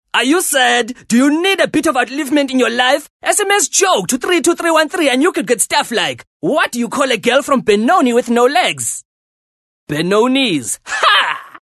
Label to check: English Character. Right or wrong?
Right